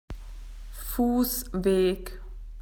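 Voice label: "footpath", Australian English; PictureDictionary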